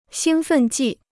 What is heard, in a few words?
"兴奋剂", Chinese